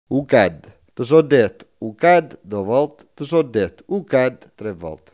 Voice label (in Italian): callo, coratino